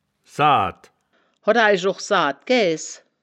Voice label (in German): "saad", wittlicher woerterbuch; das wittlicher woerterbuch; woerterbuch vertonung